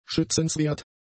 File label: "schützenswert", german; adjectives